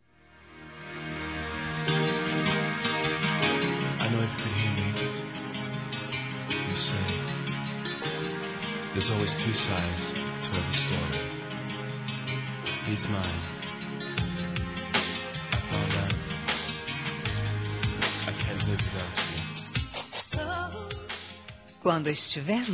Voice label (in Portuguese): Boa VIAGEM Feminino; Telemensagens; Telemensagens 2017